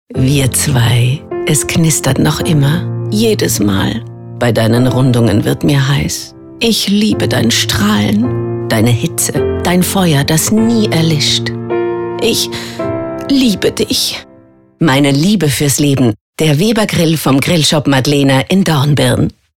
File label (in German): Spot Grill; WeberGrill